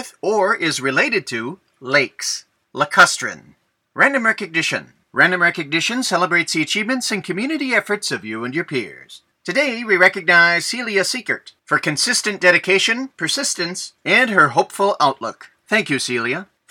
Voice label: Announcements